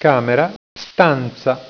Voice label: "camera", Italian

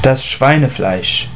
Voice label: "Schwein", German

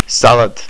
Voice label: salad, estonian

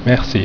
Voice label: "merci", french

